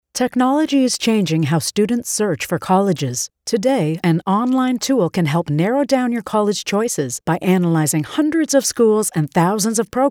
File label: Explainer Education